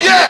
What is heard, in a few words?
"yeah", group of men